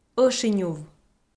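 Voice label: "ӧшинюв", Komi, female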